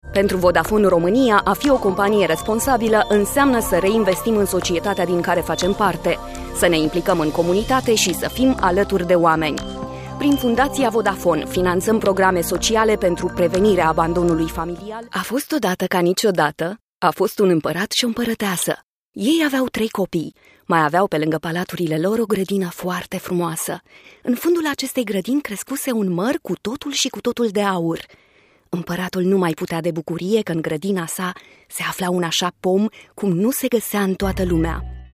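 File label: Romanian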